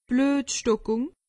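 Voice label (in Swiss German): "bluetstockung", Alsatian; medecine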